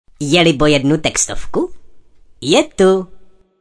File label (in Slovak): sms hlásky cz